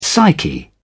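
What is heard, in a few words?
"psyche", British English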